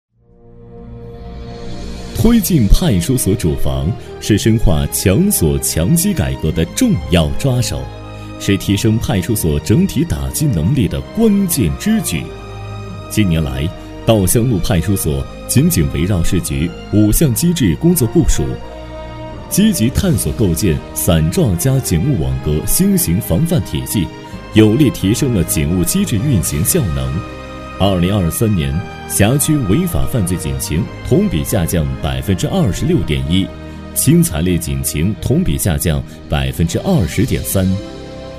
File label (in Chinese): 男 公安专题; 派出所工作汇报